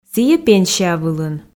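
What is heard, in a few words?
"сійӧ пенсия вылын", Komi, female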